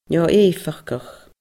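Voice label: "neo eifeachdach", Scottish Gaelic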